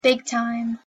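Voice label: "big time", English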